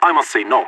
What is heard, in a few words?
negative no, vo agents; leet